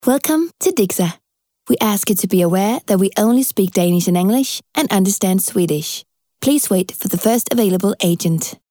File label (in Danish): Telefonsvarer UK